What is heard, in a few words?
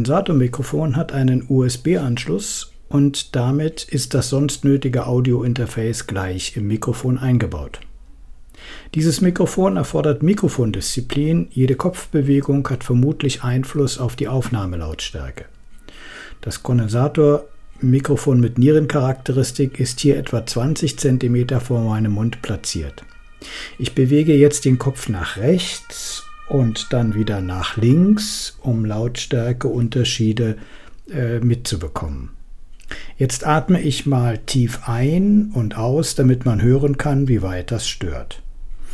t bone SC 440 USB